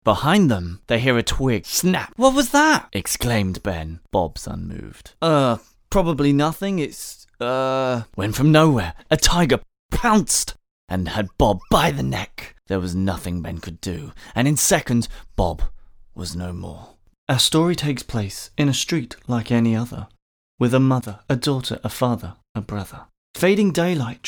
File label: Audio Book Narration